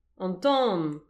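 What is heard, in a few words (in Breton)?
"an tan", Breton; petit precis de prononciation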